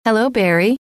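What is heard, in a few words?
hello, female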